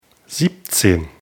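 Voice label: "siebzehn", German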